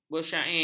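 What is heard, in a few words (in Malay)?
"bersyair", Malay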